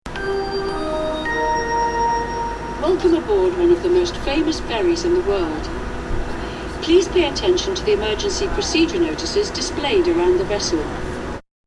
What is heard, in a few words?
Automated voice safety message on a Liverpool ferry; PA Announcements